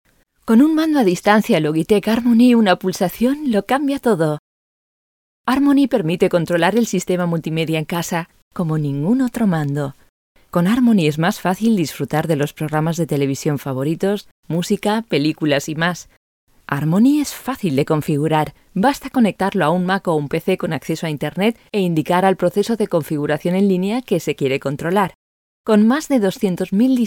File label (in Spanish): es publi; Logitech